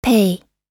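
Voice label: "pay", American English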